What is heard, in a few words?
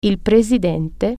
president, italian